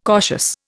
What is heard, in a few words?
"cautious", Indian